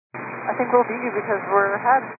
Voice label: 122 925MHz, scanner radio